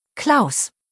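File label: "Claus", German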